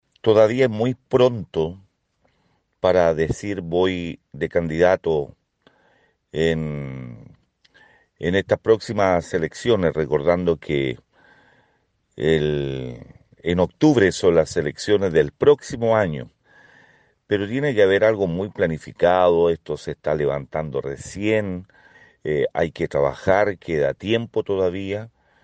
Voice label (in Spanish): Cuña